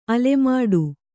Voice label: "ಅಲೆಮಾಡು", Kannada

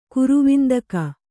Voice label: "ಕರವಂದಿಗೆ", Kannada

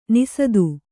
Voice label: "ನಿಸದು", Kannada

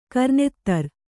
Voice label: "ಕರ್ನೆತ್ತರ್", kannada

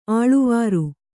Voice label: "ಅಳವರಿ", Kannada

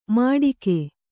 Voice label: "ಮಟಿಕೆ", kannada

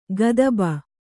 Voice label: "ಕದುಬು", kannada

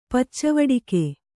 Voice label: "ಪಚ್ಚವಡಿಗೆ", Kannada

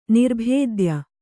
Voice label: "ನಿರ್ಭೇದ್ಯ", Kannada

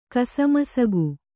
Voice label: "ಕಸಮಸಗು", Kannada

